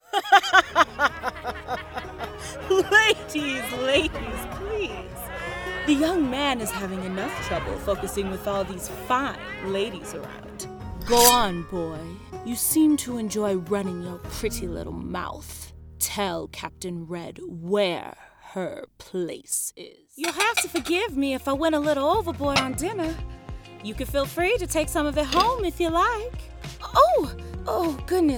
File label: Character